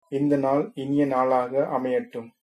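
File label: have a nice day, Tamil, male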